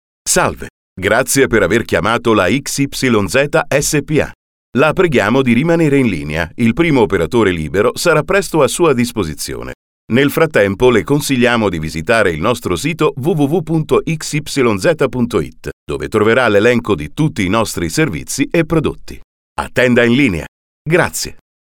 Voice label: IVR

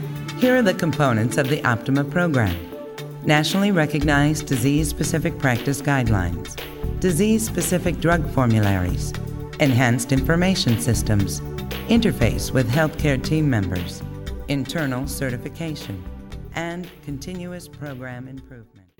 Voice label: Corporate Medical Narration

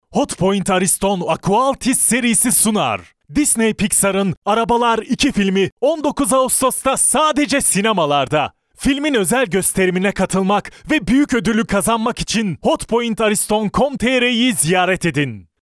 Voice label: commercial; disneypixar; English (North American)

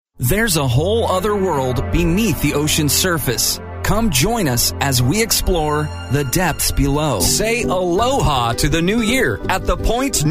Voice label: Voice-Over; English (North American)

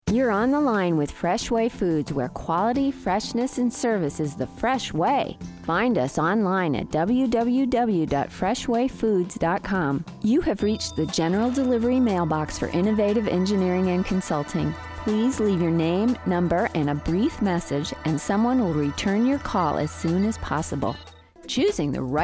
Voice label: Telephony

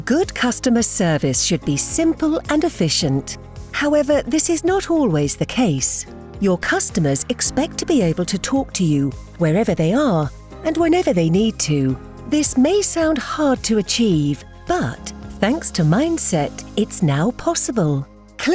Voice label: Customer Service warm reassuring explanatory

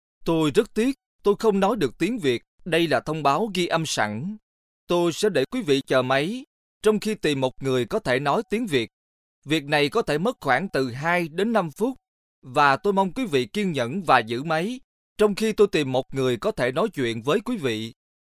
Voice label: on hold message

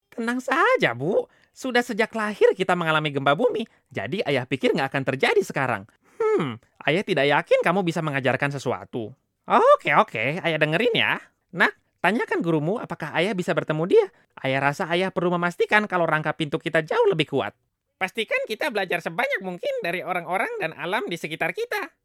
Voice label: character cartoon

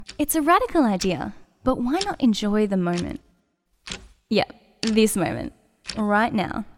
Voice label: Commercial Voiceover

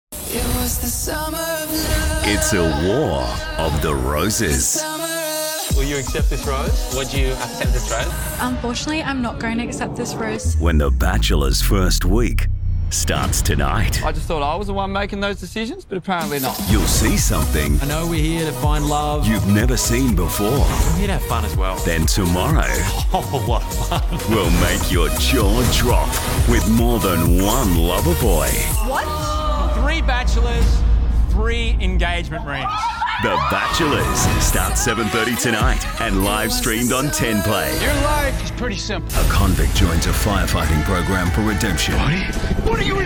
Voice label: TV Promos, Thunder

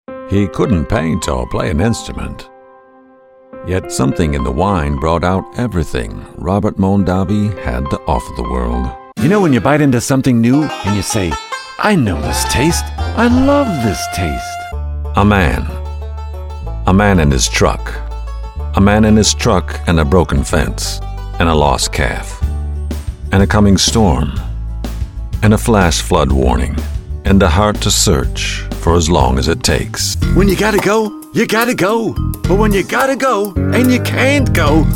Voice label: Commercial Voice Over

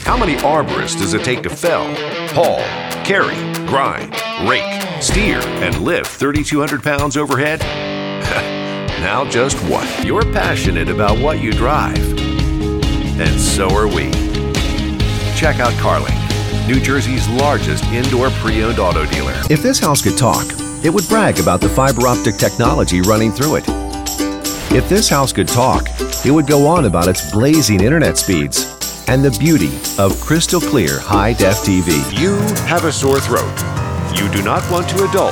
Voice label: commercial voices

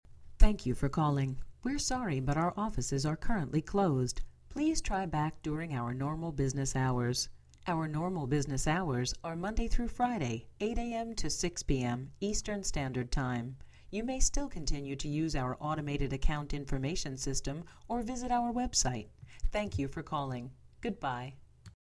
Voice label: On Hold; English (North American)

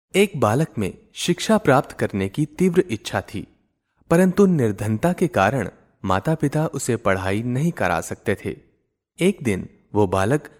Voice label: HINDI voice over

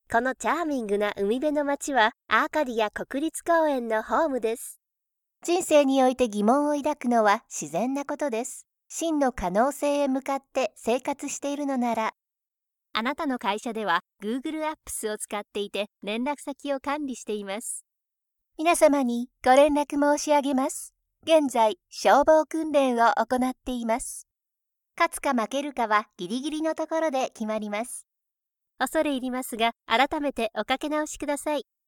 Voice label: voice-over commercials corporate videos

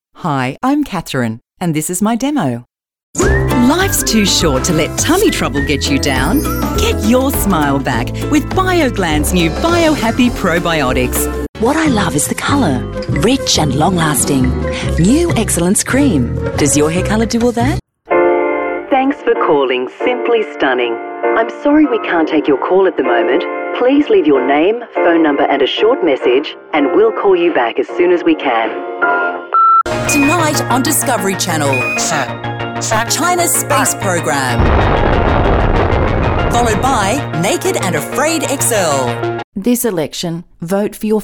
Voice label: Australian Female Voiceover; Sydney

